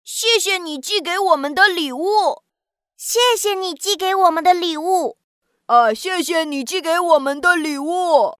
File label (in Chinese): 小男小女角色